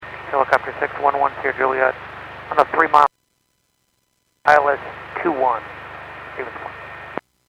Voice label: Aviation 122.7; KSTE

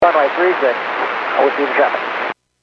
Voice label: Airports 122.7, Radio; KSTE

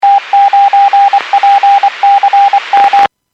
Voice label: Skywarn 146.67; Stevens Point

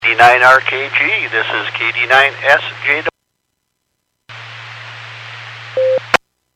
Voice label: Skywarn 146.67; Stevens Point

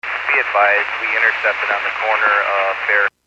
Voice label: Fire Dispatch

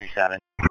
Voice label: Police Sheriff Dispatch

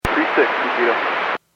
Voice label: Airports 122.7, Radio; KSTE

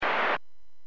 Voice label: Aviation 121.5 Emergency

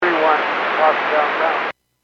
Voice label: Airports 122.7, Radio; KSTE

